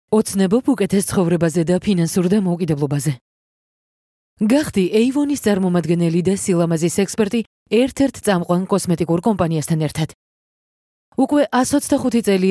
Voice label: Georgian